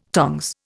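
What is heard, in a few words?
"tongue", Indian; Dictionary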